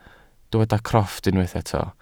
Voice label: "crofft", welsh